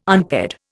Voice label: "unpaired", Indian

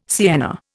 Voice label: "sienna", Indian

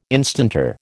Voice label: "instanter", American

